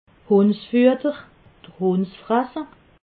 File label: "hundsfuetter", alsace